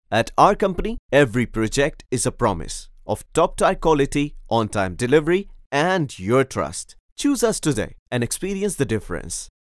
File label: English Corporate Explainer